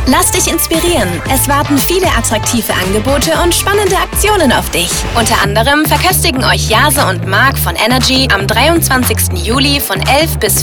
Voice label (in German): Spot IKEA Kuechenabteilung